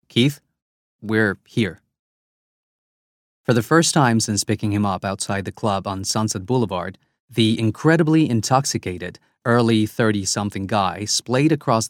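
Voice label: English, Spanish-Latin (Colombia), Male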